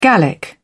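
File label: "gallic", British English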